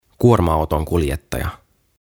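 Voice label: "Kuorma Autonkuljettaja", "Lorry Driver", Finnish, English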